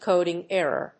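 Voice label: "coding error", English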